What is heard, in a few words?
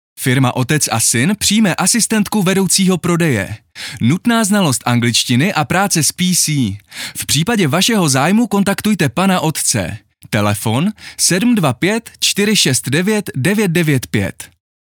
Announcement